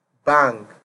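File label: "bang", Nigerian Pidgin, male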